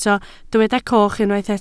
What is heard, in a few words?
"coch", Welsh